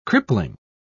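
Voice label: "crippling", British English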